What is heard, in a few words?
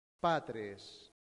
"patres", latin; santillana